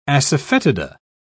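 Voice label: "asafoetida", British English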